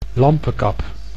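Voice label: "lampekap", Dutch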